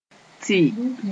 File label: "tɩ", Moore; while (simultaneous)